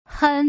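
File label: "hen", Mandarin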